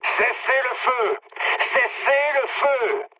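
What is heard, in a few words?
player friendly fire, mood low, French; War Thunder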